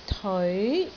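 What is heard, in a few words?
"teoi2", Cantonese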